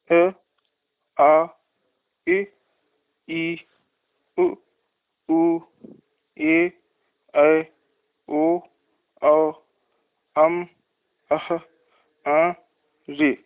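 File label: vowels, Hindi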